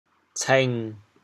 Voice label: "cêng7", Teochew, chaoyang; 穿鞋着袜; 潮阳; 穿鞋穿袜，也泛指穿整装衣服

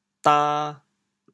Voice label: "dan2", chaoyang

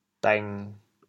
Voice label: "dêng1", chaoyang; 潮阳; 人丁稀微

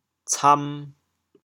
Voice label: "cam2", chaoyang

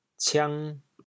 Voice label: "ciang2", chaoyang

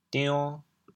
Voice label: "dion2", chaoyang

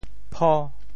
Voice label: "pho5", Teochew; 潮州; 菩萨

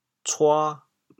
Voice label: "cua2", chaoyang; 潮阳

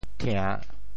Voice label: "thia~3", Teochew; 潮州; 潮州府城POJ; thiàⁿ; 调: 帝

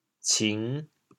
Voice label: "cing5", chaoyang

